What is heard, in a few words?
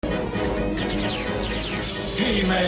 female